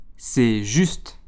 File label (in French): "c'est juste", French